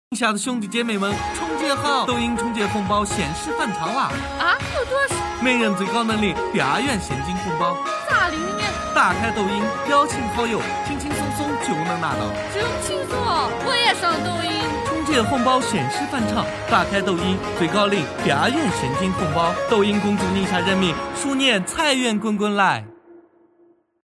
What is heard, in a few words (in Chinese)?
宁夏话广告